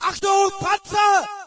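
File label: German tank ahead; video games; player; source engine; dod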